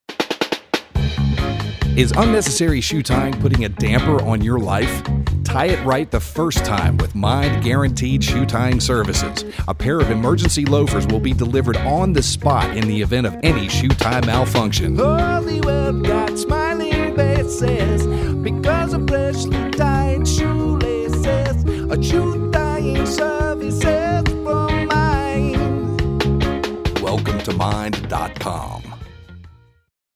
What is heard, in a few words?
Radio Ad; MIND; Shoe Tying